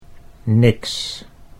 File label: "niks", Dutch